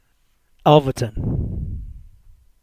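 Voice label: "Alverton", English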